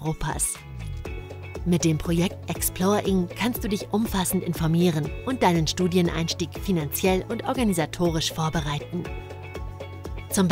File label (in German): Erklärfilm Hochschule